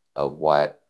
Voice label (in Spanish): Voice Acting es F0